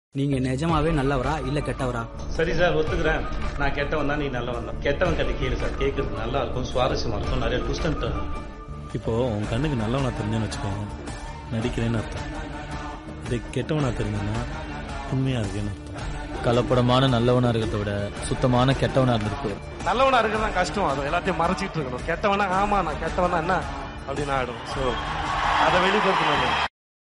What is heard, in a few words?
Mass Dialogue; Vijay Sethupathi